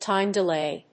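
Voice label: "Time delay", English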